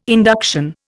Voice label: "induction", Indian